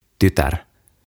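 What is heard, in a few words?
"Tytär" Finnish; Daughter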